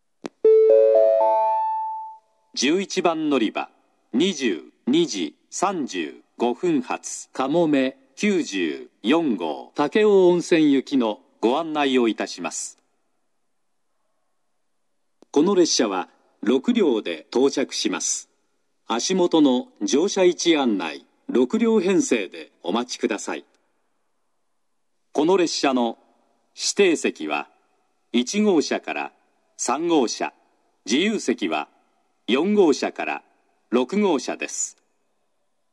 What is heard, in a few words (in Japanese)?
嬉野温泉, 11番のりば案内放送; かもめ94号 武雄温泉行き